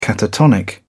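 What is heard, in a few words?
"catatonic", British English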